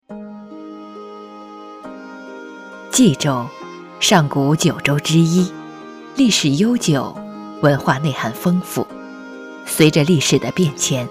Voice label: 解说样音, 国语女声配音

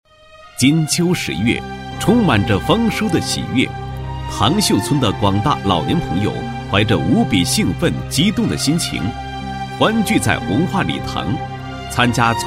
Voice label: 男 活动解说 老年金婚庆贺以及祝寿活动解说; 配音案例

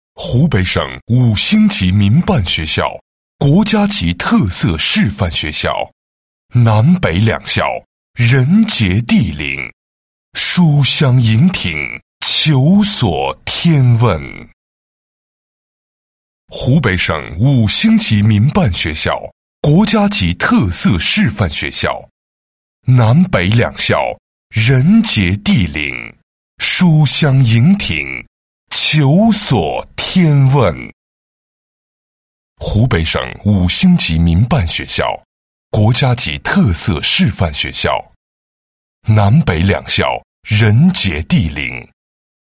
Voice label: 广告 学校宣传, 国语男声配音; 湖北五星级特办学校宣传

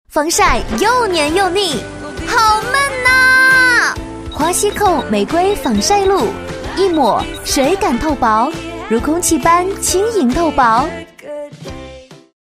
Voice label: 女 化妆品 防晒露; 国语女声配音; 花皙蔻防晒露

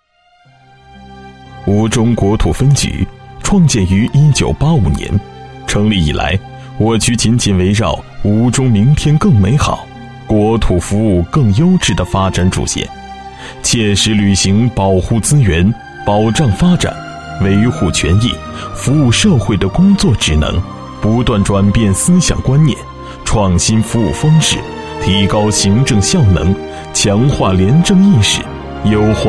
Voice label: 男 纪实, 配音案例; 国土资源局吴中分局服务品牌创建纪实

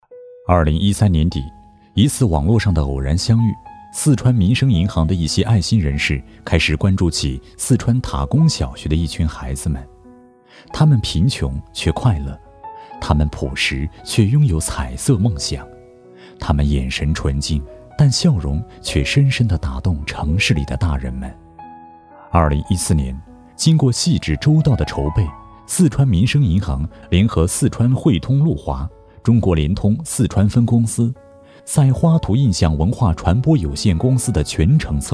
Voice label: 男, 浑厚磁性, 国语男声配音; 四川卫视; 园梦之旅

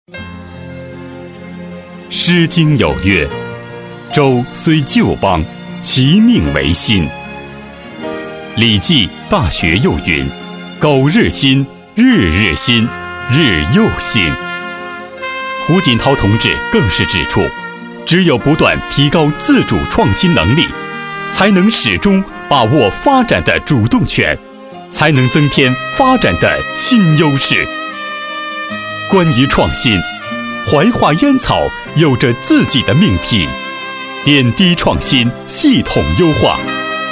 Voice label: 男, 国语男声配音; 央视老师; 创新样音

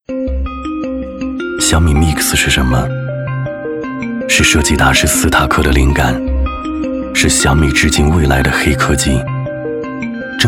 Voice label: 男, 国语男声配音, 磁性配音; 小米MIX手机; 模仿周扬

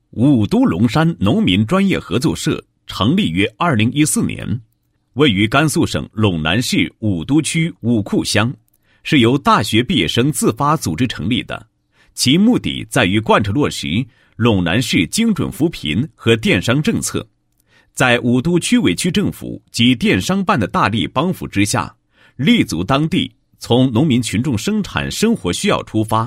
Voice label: 男 解说专题 宣传介绍; 农民专业合作社宣传介绍

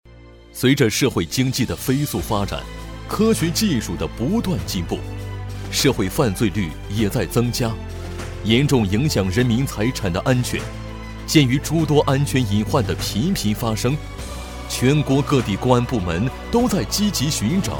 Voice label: 专题, 国语男声配音, 大气; 地网工程

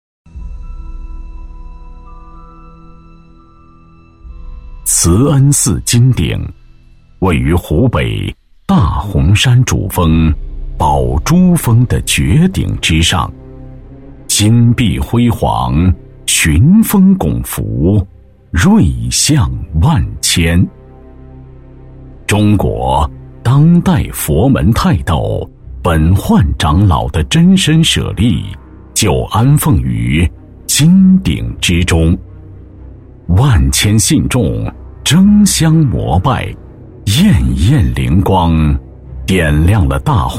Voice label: 男 专题, 国语男声配音; 慈恩寺金顶

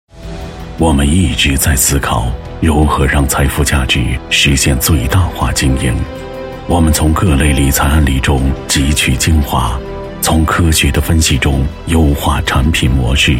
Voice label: 男25号 广告, 国语男声配音

